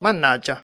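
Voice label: "mannaggia" Italian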